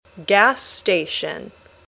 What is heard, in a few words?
"gas station", English